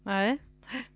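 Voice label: egressive ingressive "nej", female; JIPA 2008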